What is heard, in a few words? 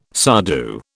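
"sadhu", British English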